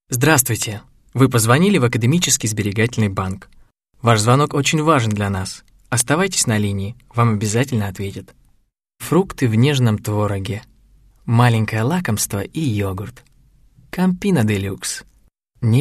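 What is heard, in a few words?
Russian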